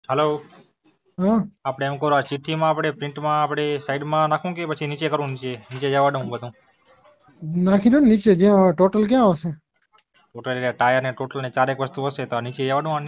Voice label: Call recording